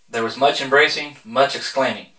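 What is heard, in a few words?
male reverberant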